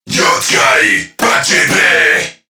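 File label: Cover Me Crewmen, English; Dialog; Barks; Battle; Warframe; CorpusCrewmen; CrewmanA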